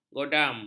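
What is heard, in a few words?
"godam", Malay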